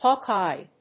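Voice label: "hawk-eyed", English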